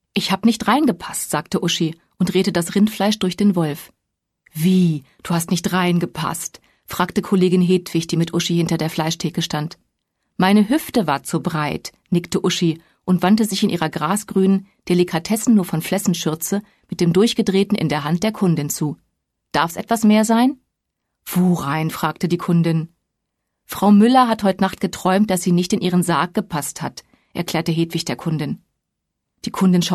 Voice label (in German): Hörbuch